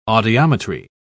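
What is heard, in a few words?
"audiometry", British English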